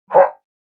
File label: grunt